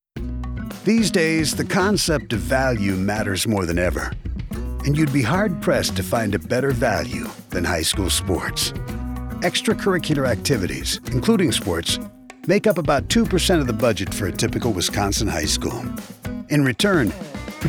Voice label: audio spot